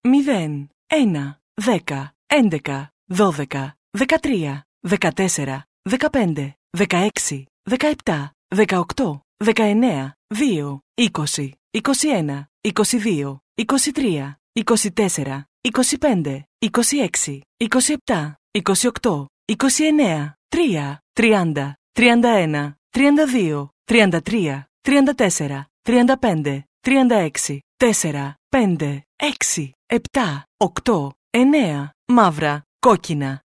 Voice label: Dealer Voice, sk, Roulette; real Dealer European Roulette Vintage; el